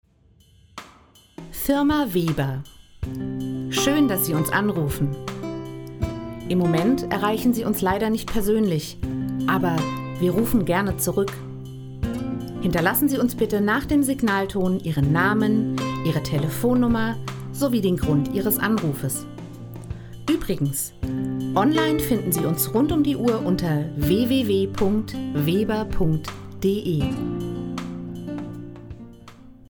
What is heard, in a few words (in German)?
Sprechprobe Anrufbeantworter normal